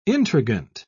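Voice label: "intriguant", English, uk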